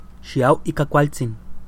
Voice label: bon voyage nahuatl